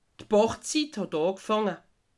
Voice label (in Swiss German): "bachzit", alsace